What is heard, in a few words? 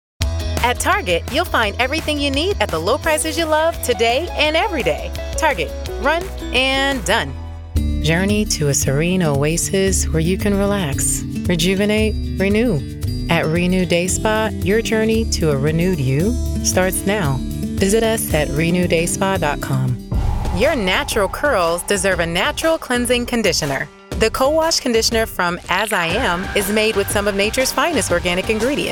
Commercial